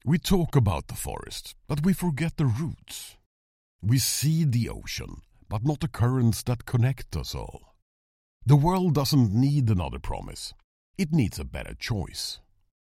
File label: sustatainability english